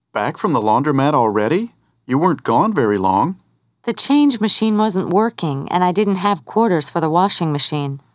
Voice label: dialog